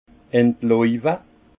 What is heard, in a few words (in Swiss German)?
"entlauwe", alsace; vin; effeuiller